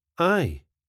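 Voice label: "aye", Scottish English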